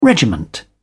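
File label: "regiment", British English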